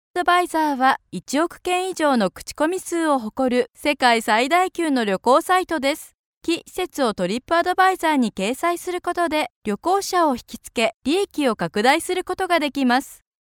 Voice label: female japanese voice over sales